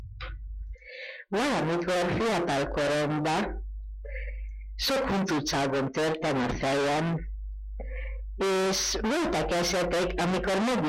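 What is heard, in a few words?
dialect collection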